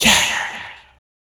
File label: "yeah" breathy, voice